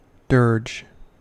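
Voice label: "dirge", US English; pronunciations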